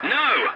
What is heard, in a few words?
voice message no, english; War Thunder